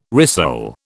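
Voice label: "rissole", British English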